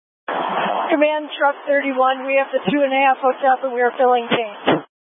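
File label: Incident Tac 2, Scanner audio recording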